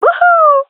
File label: cheer, gendarmerie fem; vo; agents